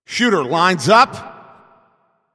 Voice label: Shot On Goal, Announcer

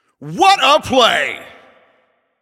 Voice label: Goal Scored, Announcer

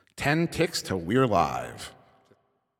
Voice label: 10 Seconds To Game Start, Announcer

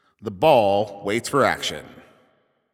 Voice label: Ball Placed in Start Ring, Announcer

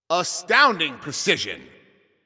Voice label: Announcer Especially Good Shot

